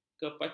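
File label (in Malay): "kepecong", Malay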